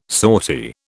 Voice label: "sortie", British